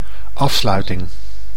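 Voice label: "afsluiting", Dutch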